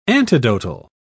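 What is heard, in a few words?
"antidotal", British English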